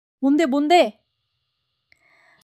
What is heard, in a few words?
excitement